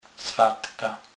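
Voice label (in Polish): "swatka", Kurpie dialect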